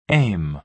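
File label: "aim", American English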